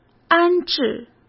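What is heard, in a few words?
"安置", Mandarin Chinese; hsk; chinese-flashcards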